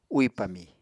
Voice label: "úì pami", Apatani

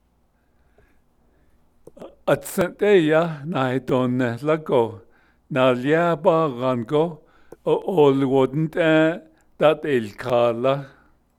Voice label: navajo particle; They drove to the trading post to buy only meat

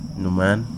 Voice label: "louse", Wahgi; lae unitech

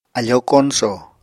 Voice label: "afóo koñsò", Apatani

